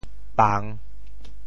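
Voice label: "pang3", Teochew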